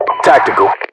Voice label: title tactical, US English